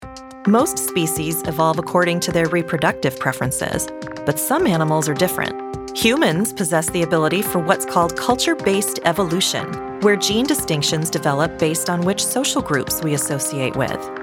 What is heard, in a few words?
Narration; VOICEFOX